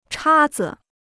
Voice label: "叉子", Chinese

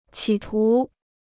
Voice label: "企图", Chinese

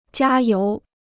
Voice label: "加油", Chinese

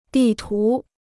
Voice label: "地图", Chinese

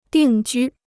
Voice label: "定居", Chinese

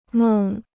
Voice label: "梦", Chinese

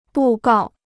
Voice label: "布告", Chinese

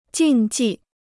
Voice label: "禁忌", Chinese

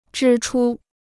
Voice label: "支出", Chinese

